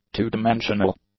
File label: "two-dimensional", English; adjectives